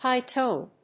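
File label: "high-tone", English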